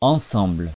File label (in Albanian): "ensemble", frengjisht